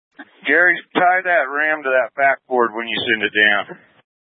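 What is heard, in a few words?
Incident Tac 1, scanner audio recording, Grand Junction, Mesa County, Colorado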